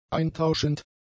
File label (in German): "eintauschend", german; adjectives